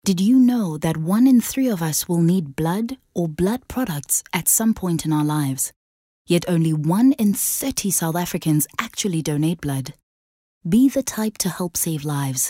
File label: English Skill PSA